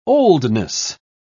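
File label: "oldness", British English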